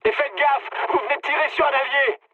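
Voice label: French player friendly fire mood med; War Thunder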